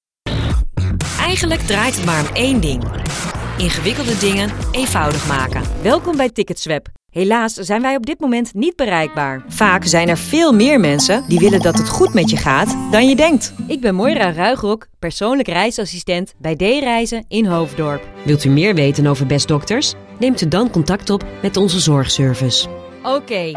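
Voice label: Dutch female commercial; voice demos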